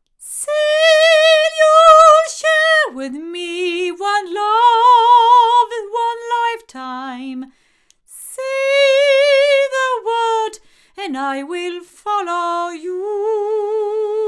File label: female, microphones recordings